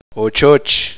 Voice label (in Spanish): casa, uspanteko